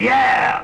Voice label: "yeah" SCRATCH, voice